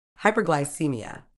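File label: "hyperglycaemia", British English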